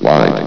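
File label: "white", male synthesized announcer voice; hlvox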